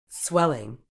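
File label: "swelling", British English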